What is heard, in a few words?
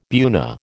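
"buna", American English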